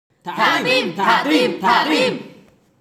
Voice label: tiếng nói thả tim livestream bán hàng

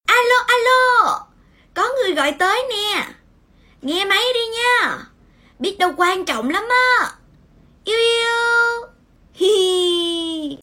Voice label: nhac chuong alo alo co nguoi goi toi ne de thuong giong nu

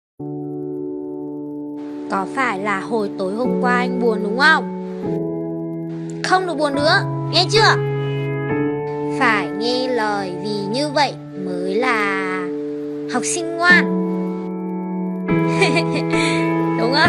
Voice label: con gai noi giong bac de thuong

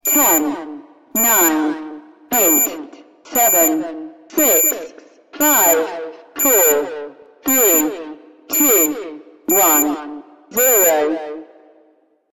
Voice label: dem nguoc 10s tieng anh

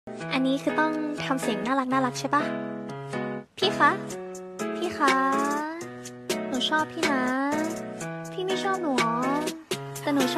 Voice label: tieng giong nu cau xin

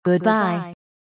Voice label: Tieng tam biet giong nu

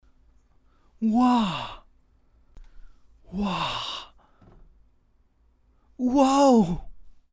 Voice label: tieng noi wow bat ngo ngac nhien

